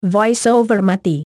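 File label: Indonesian VoiceOver Off; EFI Audio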